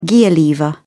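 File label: "gear lever", British English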